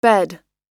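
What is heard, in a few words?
"bed", English; oxford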